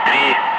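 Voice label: "5", spoken; Ka-50; Wingman; Digits; DCS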